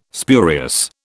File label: "spurious", British